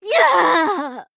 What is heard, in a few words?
Female Blood Elf Death